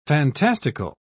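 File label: "fantastical", British English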